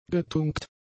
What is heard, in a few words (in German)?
"getunkt", german; adjectives